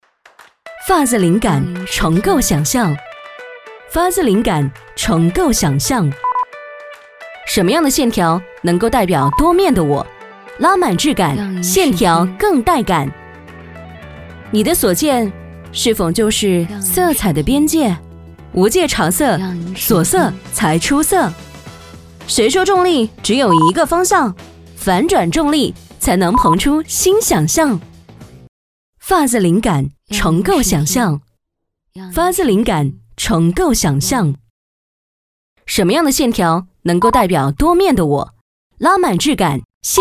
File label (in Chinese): 女 品牌广告; 沙宣VO-AR